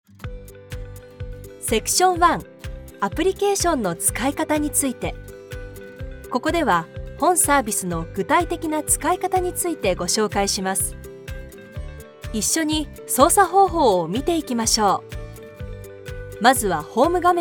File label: japanese e-learning